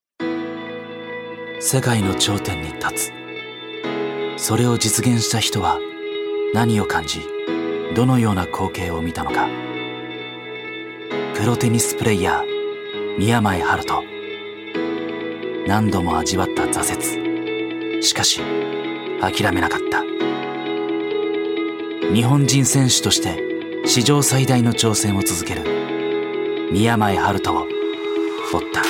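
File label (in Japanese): ナレーション, 男性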